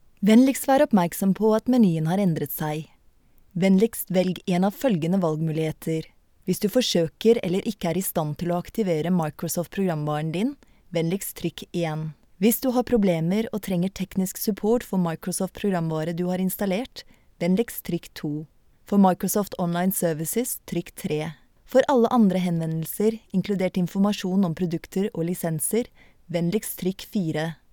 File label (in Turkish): Norvecce Kadin, seslendirme; kadin sesler